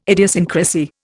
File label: "idiosyncrasy", Indian